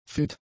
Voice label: "fit", German; adjectives

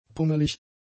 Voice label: "pummelig", German; adjectives

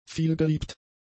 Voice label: "vielgeliebt", German; adjectives

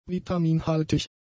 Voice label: "vitaminhaltig", German; adjectives

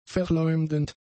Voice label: "verleumdend", German; adjectives

